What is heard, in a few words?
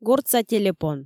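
"гортса телепон", Komi, female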